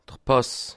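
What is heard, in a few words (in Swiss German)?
"pass", Bas Rhin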